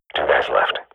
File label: radio bot kill count, swat; Counter Strike Model Pack; CS:GO